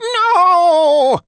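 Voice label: wizard taunt death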